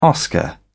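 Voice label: "oscar", British English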